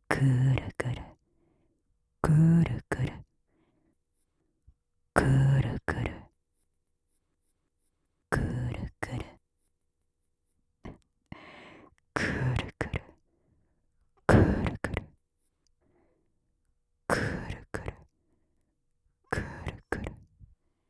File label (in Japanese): 上官 クルクル ふつう; ASMR; オノマトペ; 右耳